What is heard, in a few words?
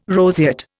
"roseate", Indian